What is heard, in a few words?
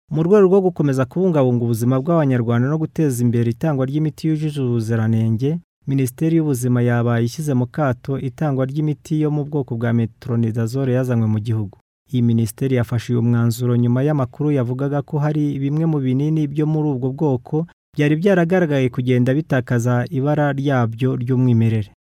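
Kinyarwanda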